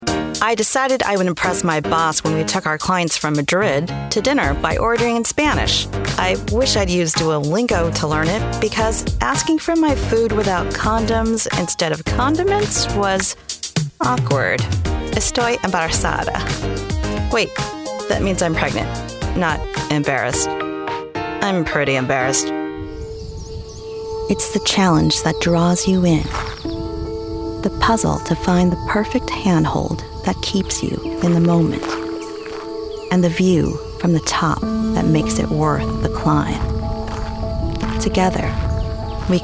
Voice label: Voice Over Commercial